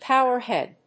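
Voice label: "powerhead", English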